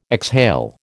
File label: "exhale", American